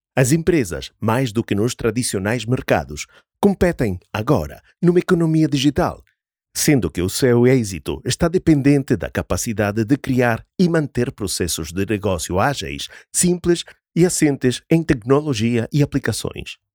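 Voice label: Explainer Video Motion Graphics European Portuguese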